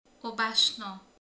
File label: "kakas", romani